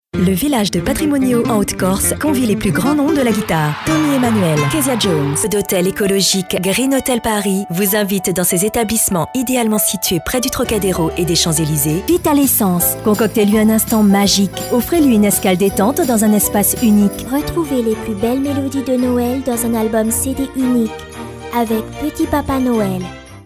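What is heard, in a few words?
French commercial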